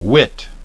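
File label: "wit", English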